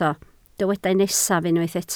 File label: "nesaf", Welsh